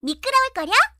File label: Academic Voice